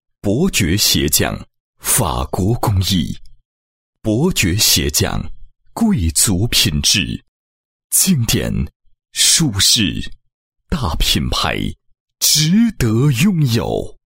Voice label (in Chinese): 男 广告 宣传; 查理烟斗皮鞋 经典 时尚 舒适大品牌